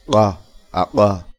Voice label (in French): G uvulaire implosif